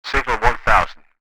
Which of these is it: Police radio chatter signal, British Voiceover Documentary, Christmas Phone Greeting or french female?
Police radio chatter signal